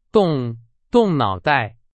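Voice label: Chinese, example sentence; hsk4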